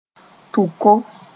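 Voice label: "tʋko", Mooré; can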